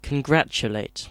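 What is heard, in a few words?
"congratulate", British English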